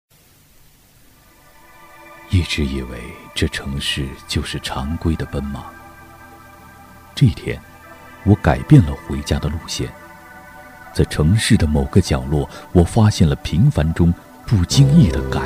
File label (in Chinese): 男 酒广告 深情讲述; 稳重底蕴; 质感磁性; 《上海老酒》